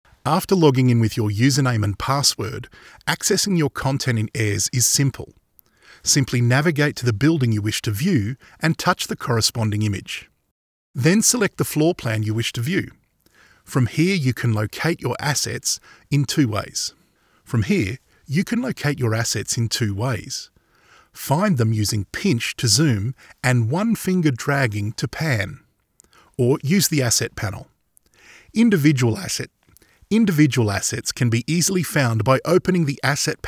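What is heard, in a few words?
Australian English Male eLearning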